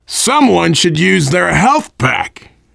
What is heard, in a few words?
player suggest health, voice, biker; Left 4 Dead 2; survivor